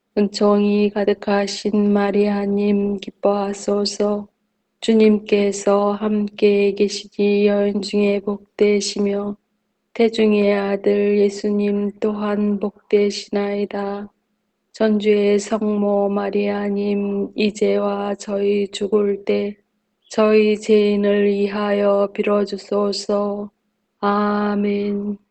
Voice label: hail mary korean

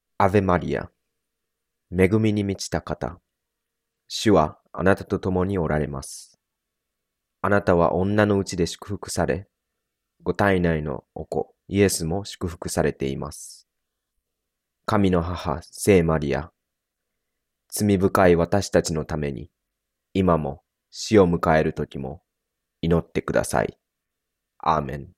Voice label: hail mary japanese

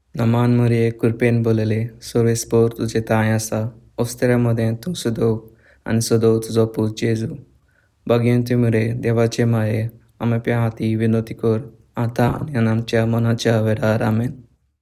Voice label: hail mary konkani

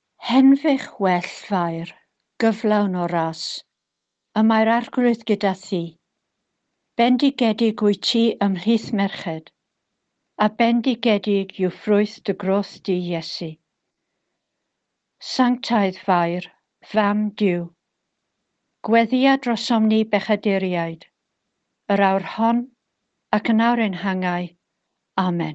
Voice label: hail mary welsh

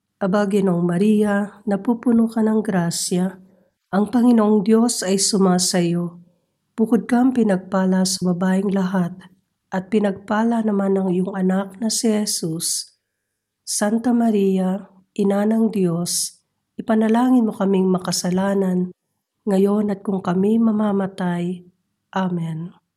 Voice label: hail mary tagalog

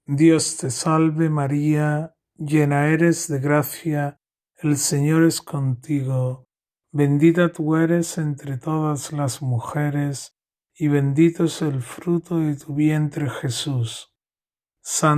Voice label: hail mary spanish

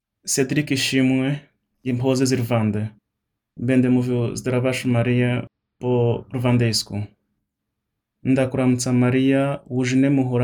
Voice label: hail mary kinyarwanda

